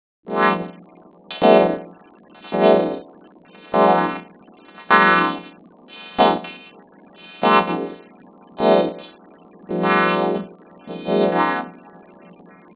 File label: Voice 30 filters pitch shift, Speech; PIC32